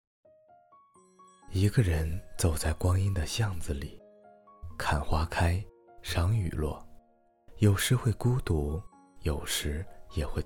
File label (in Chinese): 电台; 愿你能活成自己喜欢的模样